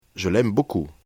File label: "aime beaucoup", french